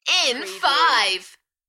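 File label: UK female, Enthusiastic, DJ vocals; In five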